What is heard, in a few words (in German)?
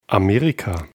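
"Amerika", German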